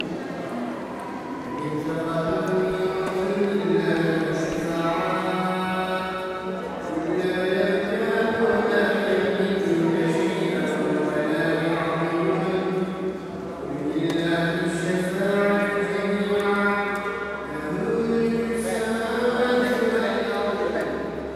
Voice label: Hagia Sofia Indoors Prayer; Istanbul; field-recordings; hagia-sophia